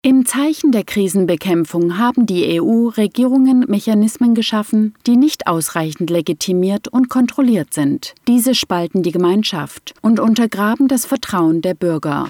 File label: german